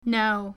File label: girl "no"; Inseminator